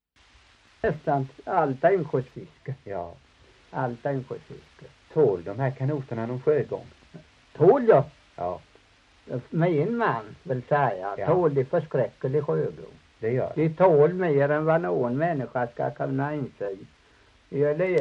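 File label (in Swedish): Torhamn Blekinge, Svenska sagor dialekter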